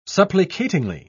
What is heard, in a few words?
"supplicatingly", en uk